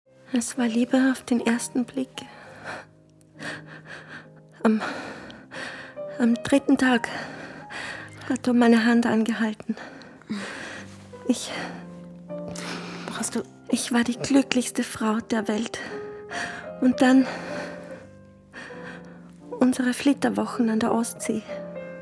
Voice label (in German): Hörspiel; Honigtot; Audible